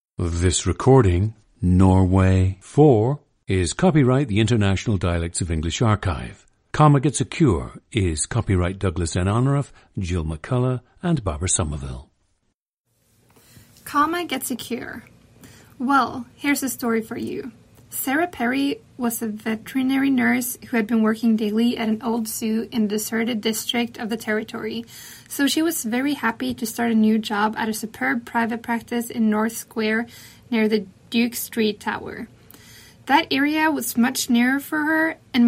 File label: norway, dialects; a 20-year-old woman from Bærum, Norway; International Dialects of English Archive